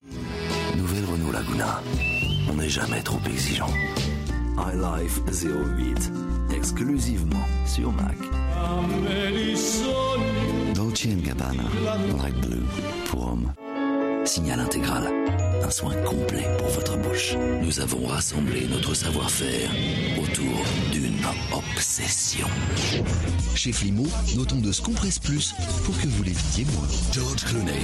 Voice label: French